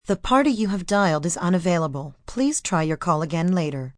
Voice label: Unavailable, Telephone operator, female, recorded; Telephone Voice